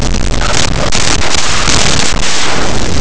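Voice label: "eclipsar", Spanish; laboratori fonetica; oclusives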